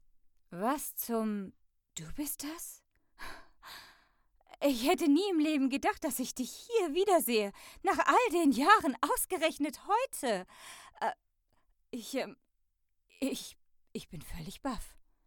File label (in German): überraschte Person